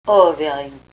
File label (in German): "owergn", erzgebirgisch